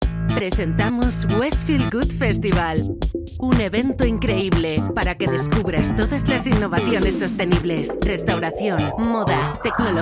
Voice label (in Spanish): SPOT PROMOCIONAL